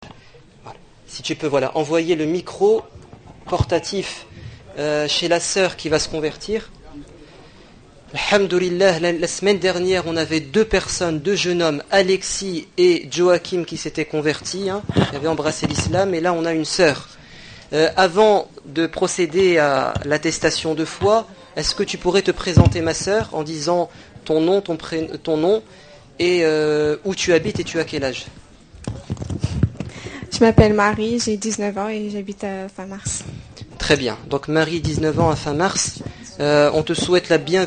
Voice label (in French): preche